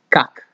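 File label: "kak", Nigerian Pidgin, male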